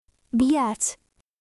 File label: Russian, russian phrases insults; ロシア語のネガティブなフレーズ